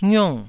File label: "ngiung4", taiwanese syllables